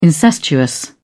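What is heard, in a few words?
"incestuous", British English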